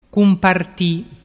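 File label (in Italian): "cumparti", dialect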